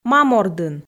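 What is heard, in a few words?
"мам ордын", Komi, female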